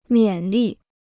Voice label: "勉励", Chinese

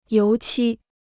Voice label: "油漆", Chinese

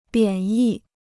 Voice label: "贬义", Chinese

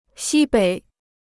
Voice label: "西北", Chinese

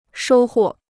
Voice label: "收获", Chinese

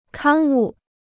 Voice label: "刊物", Chinese

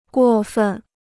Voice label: "过分", Chinese

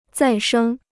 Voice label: "再生", Chinese

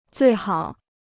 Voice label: "最好", Chinese

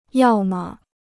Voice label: "要么", Chinese

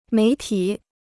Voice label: "媒体", Chinese

